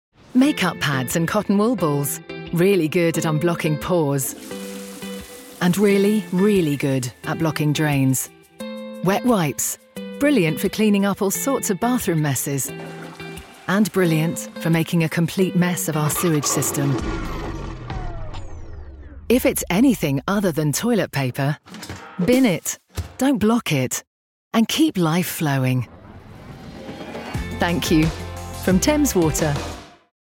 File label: Radio Ad; Thames Water